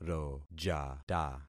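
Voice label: "rojata", concatenated syllables; Affixing experiment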